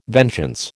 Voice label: "vengeance", American